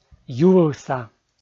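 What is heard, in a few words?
"ювывса", Komi, male; kpv audio dict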